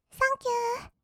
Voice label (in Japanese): "サンキュー", kawaii voice; ASMR; 色々なワンショット